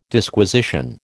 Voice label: "Disquisition", American